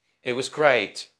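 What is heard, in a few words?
Voice Acting, Spanish, male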